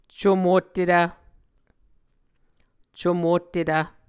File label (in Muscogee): "comottetv", Muscogee